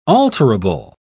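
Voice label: "alterable", British English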